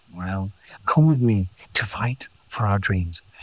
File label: Voice Acting, es